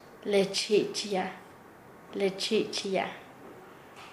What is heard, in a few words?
"hacer cosquillas", Nasa Yuwe; paez